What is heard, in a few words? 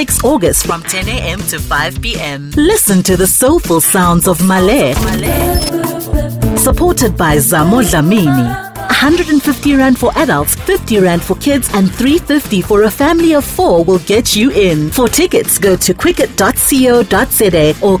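ENSA General, South African English; GoLocalise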